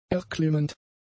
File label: "erklimmend", German; adjectives